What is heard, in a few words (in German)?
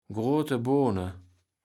"Grooteboone", Platt